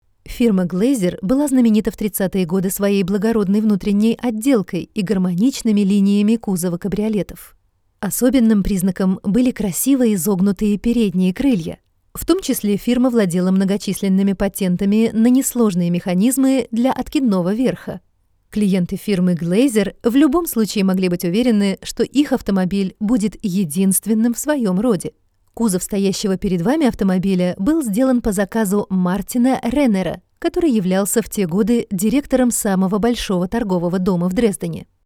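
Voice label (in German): Russian Kommentar Neutral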